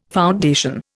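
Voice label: "foundation", Indian English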